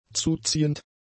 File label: "zuziehend", German; adjectives